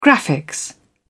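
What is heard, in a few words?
"graphics", British English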